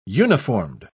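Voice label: "uniformed", British English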